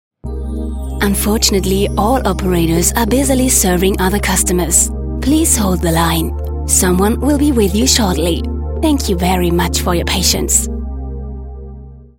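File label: english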